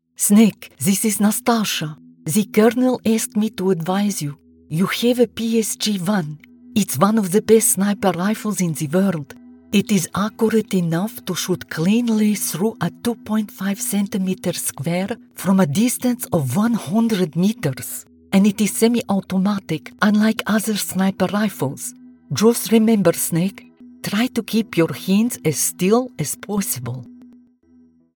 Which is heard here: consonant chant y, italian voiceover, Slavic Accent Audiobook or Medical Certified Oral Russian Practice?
Slavic Accent Audiobook